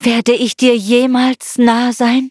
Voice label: level up, de